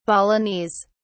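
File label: "balinese", British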